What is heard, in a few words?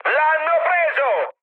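Italian aircraft destroyed mood high, speech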